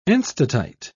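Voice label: "enstatite", British English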